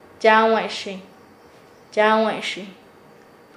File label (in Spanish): su de ellos de ellas, Nasa Yuwe; paez